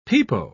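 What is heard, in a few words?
"pepo", British English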